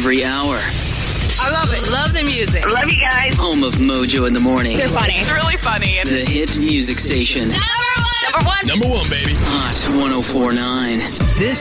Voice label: english american radio imaging

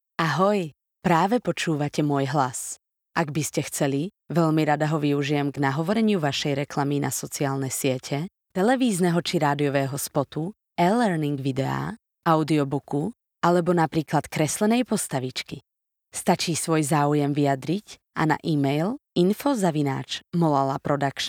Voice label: slovak narration

